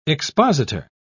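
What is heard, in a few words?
"expositor", British English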